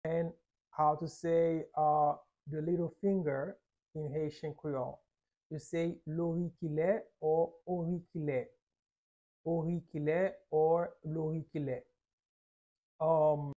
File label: How to say Little Finger in Haitian Creole Orikile pronunciation by a native Haitian tutor